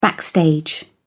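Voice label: "backstage", British English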